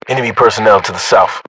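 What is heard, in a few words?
threat infantry south, British English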